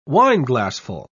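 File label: "wineglassful", British English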